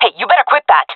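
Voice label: taking fire friendly, female voice; player vo; Counter Strike Model Pack; CS:GO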